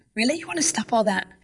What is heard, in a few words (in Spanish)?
Voice Acting es